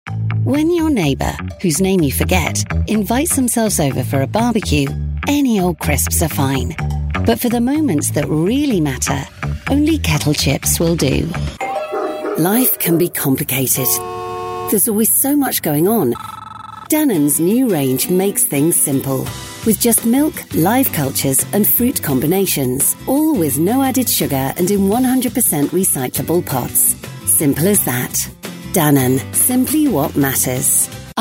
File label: commercial, voiceover